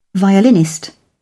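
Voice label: "violinist", English, British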